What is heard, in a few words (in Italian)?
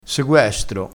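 "seguestro", vocabolario dialettale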